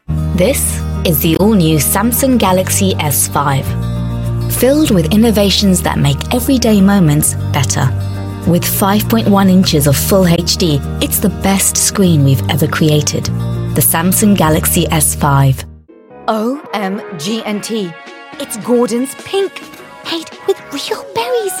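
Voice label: Commercial Reel; Natural Accent: Neutral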